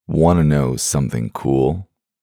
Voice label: man wanna know something cool, male; tone; voice ringtones